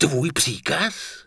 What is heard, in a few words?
Night Elf Male Warrior NPC Farewell; wowpreklad; czwow